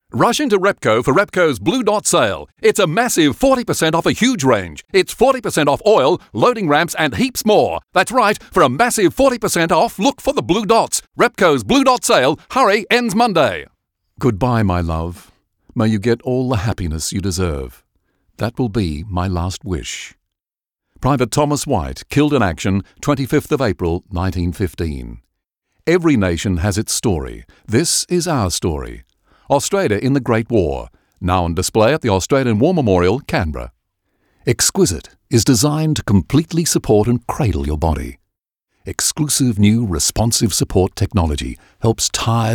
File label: Retail VO